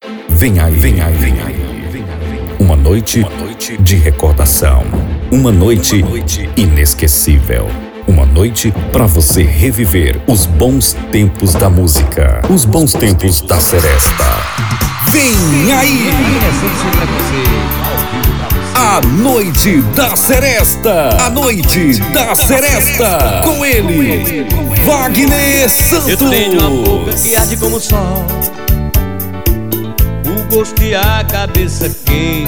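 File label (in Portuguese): Voz Padrão - Grave, Masculino, Português - Brasileiro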